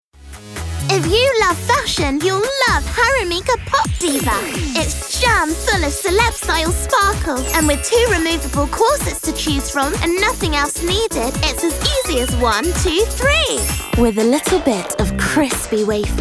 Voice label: montage commercial